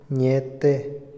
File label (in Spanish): "brujería", Otomí, hombre; Religión; INALI